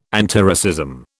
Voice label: "antiracism", British